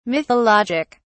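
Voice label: "mythologic", British English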